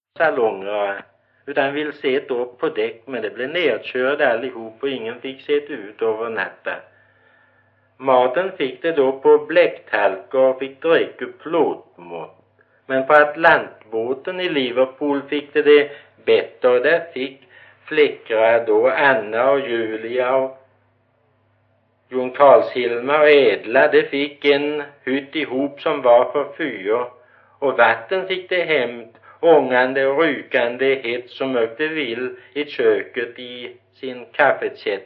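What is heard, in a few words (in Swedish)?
Gårdby Öland, dialekter; Folkminnen; Svenska sagor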